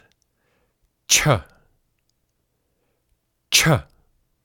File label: "cha", Sanskrit